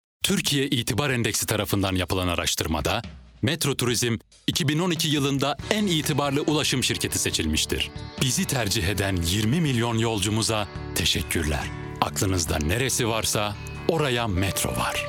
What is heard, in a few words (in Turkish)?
kurumsal imaj, vokal tonu